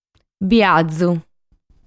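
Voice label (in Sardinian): "Biazu", Sardinian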